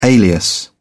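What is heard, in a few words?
"alias", British English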